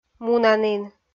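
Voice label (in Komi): "мунанін", Komi, female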